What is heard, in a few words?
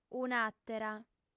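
"un'àtera", lingua sarda, female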